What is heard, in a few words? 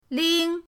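"ling", Mandarin pinyin, first tone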